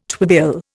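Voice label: "twibill", Indian English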